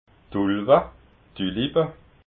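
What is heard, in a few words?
"tulwa", Alsatian, Haut Rhin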